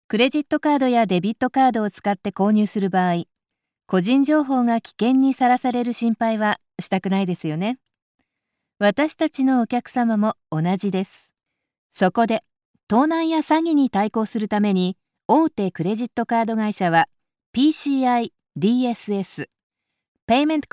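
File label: Japanese, female; Navex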